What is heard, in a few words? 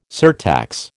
"surtax", American